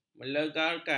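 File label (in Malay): "melegarkan", Malay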